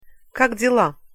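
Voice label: "kak dila" Russian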